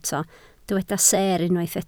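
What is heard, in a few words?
"seer" Welsh, spoken word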